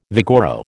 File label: "vigoro", American